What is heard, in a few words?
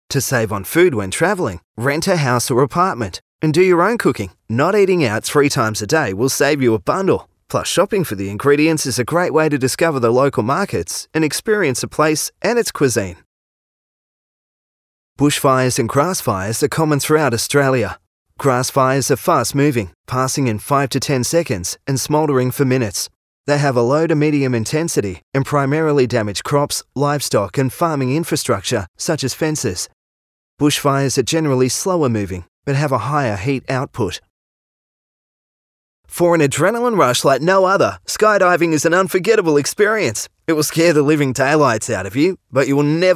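Australian English, male, narration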